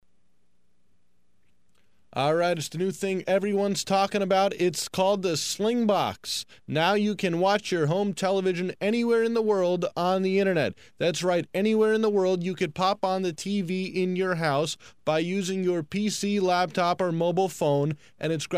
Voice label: Ad; Slingbox